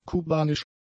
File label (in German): "kubanisch", German; adjectives